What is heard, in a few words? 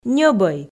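"ньӧбӧй", Komi, female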